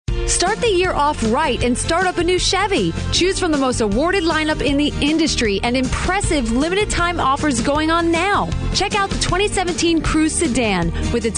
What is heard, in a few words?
USA